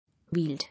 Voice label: "Wield", English

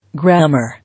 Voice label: "Grammar", English

